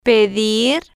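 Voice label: "pedir", spanish, slow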